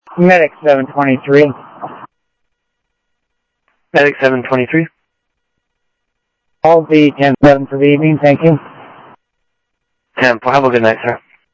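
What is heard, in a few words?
Sheriff Lake Patrol, scanner audio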